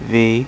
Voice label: "v", male, alphabet; Web Clip Empire 150,000